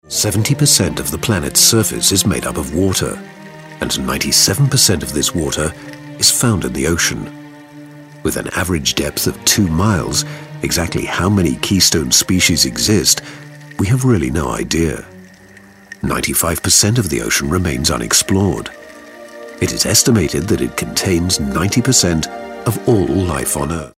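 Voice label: British documentary nature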